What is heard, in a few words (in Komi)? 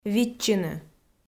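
"виччыны", Komi, female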